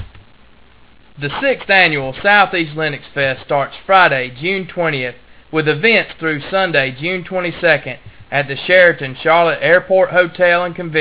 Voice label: radio ad